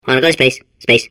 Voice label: babble; portal2